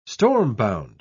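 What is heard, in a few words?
"stormbound", British English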